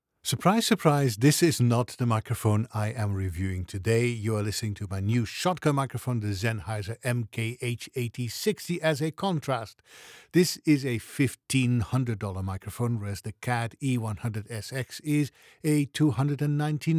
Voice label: MKH-8060 CAD E100Sx